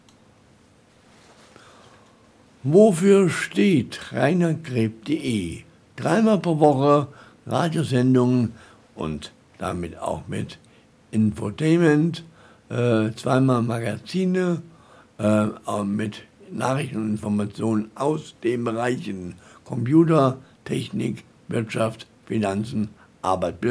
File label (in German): ansage